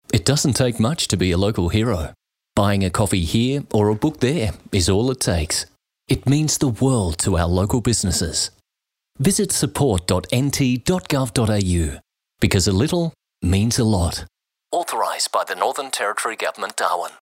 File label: english australian political ads